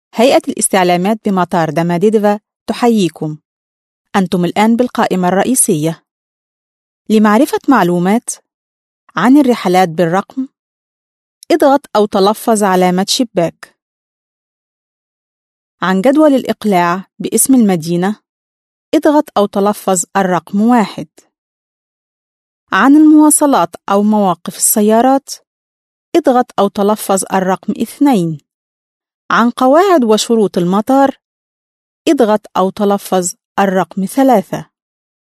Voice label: arabic female